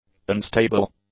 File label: "unstable", english; adjectives